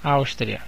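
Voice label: "austria", Brazilian Portuguese; gcompris; geography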